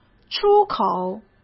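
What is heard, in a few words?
"出口", Mandarin Chinese; chinese flashcards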